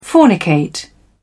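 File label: "fornicate", English, British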